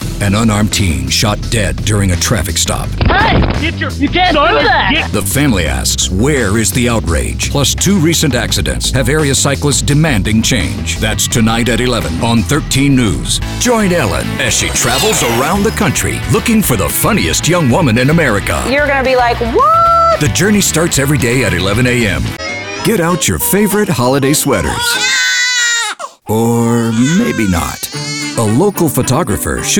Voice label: Male Voice Over Talents TV Promo Affiliate English